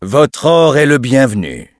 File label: bloodelf, male; or bienvenue; PhoenixCraft-1.16.5; PhoenixNPCPack; minecraft